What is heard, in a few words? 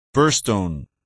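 "burstone", English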